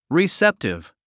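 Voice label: "receptive", English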